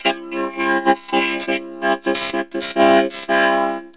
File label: phase, vocoder; Can you hear the phasing of the synthesized sound; PAiA vocoder